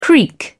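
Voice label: "creek", British English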